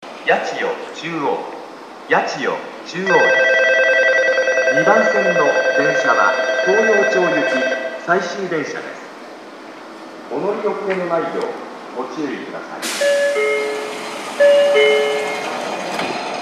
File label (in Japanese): 旧放送では終列車においてのみ流れていた到着放送; 2番線最終; yachiyo-chuo